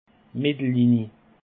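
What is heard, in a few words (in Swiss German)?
"mettellinie", Alsatian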